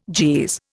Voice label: "Jeez", Indian